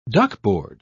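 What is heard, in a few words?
"duckboard", English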